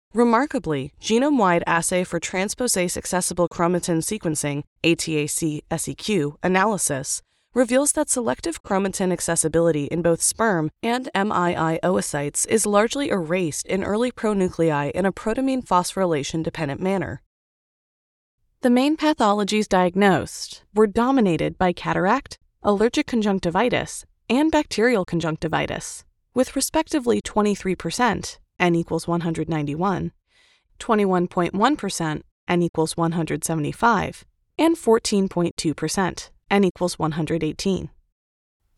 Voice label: Medical Narration